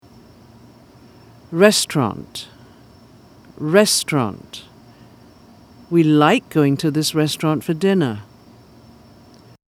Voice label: restaurant, pronunciation